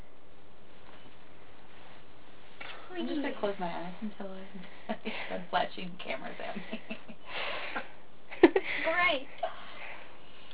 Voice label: girls voice